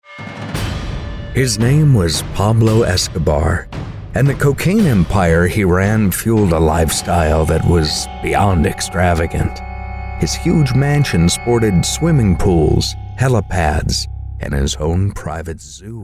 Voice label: narrative storytellers